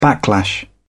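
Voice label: "backlash", British English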